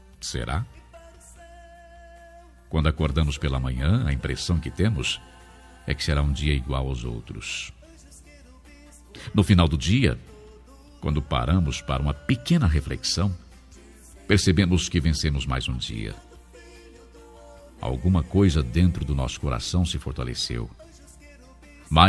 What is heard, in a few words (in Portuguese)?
pastora masc, Aniversário de Pastora – Voz Masculina; Telemensagens para todas as ocasiões